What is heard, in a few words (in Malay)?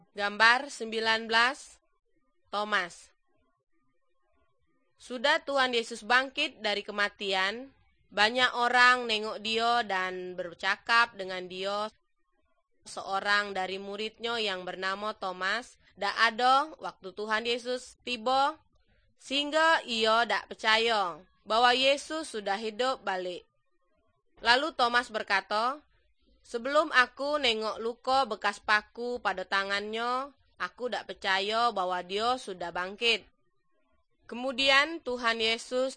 good news malay jambi